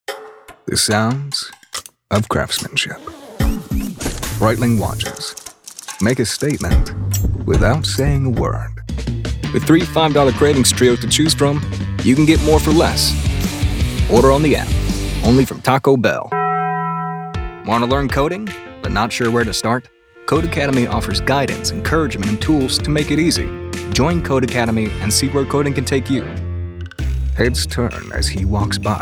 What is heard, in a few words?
Voice Demo